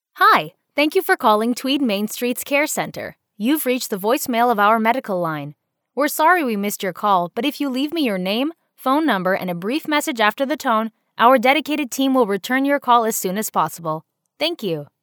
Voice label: ivr sample english; dictor